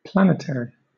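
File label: "planetary", British English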